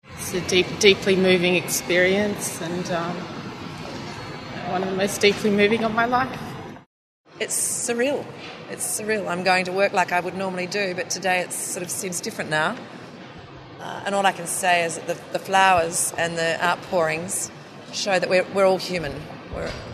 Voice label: Vox Pops; OH Memorial